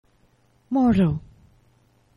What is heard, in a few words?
"moro", Esperanto; geneva